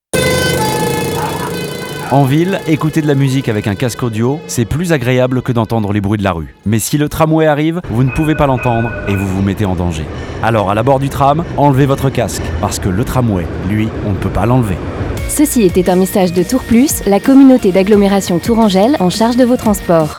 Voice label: Tram Spot